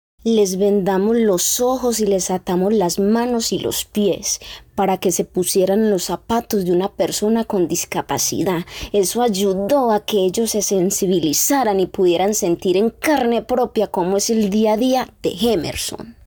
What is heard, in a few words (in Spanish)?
Mujer Adulta; casting trineo tv